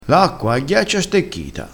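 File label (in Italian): "ghiaccio stecchito", vocabolario dialettale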